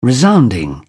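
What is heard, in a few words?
"resounding", British English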